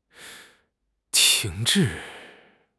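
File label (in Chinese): "停滞", Chinese; HSK6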